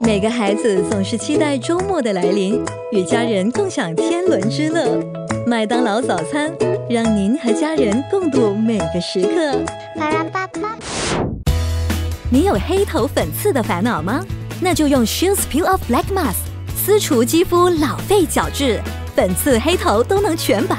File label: Friendly bright; McD